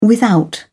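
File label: "without", English, British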